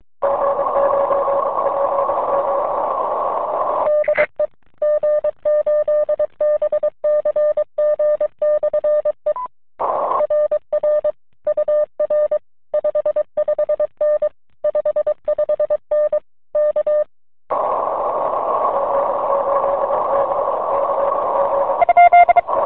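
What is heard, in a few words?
amateur radio contact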